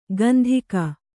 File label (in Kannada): "ಗಂದಿಗ", kannada